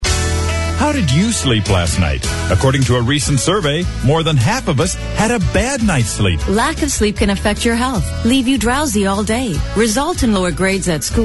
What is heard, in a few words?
mattress commercial; sleep country canada